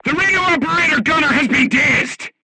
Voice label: voice message machine gunner contused, crew tanks portuguese